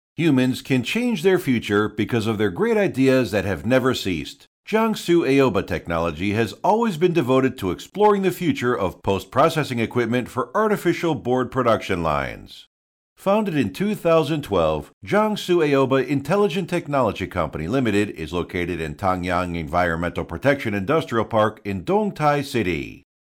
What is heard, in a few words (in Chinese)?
科技公司宣传片 自然干音